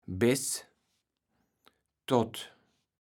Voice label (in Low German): "bes tott", kaevels platt